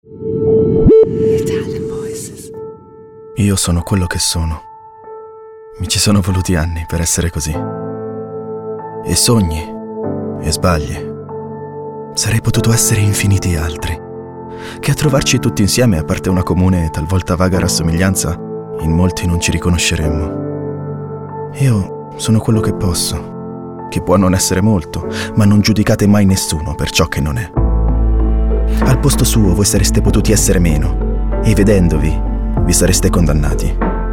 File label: ITALIAN VOICES, male voices; Naked Reel